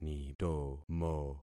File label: "nitomo", concat syllables; Affixing experiment